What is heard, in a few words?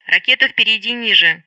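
Speech Sound Russian Common Messages; Betty; DCS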